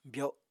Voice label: "byo7", Apatani

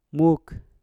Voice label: "muk", Mpur; bukit

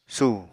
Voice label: "sù", Apatani

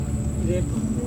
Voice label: "repa", to hit, Serewen

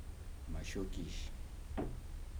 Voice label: "socks", Kibembe

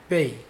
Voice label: fight, Mokilese

